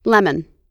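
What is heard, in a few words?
"lemon", American English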